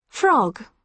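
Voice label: "frog", British English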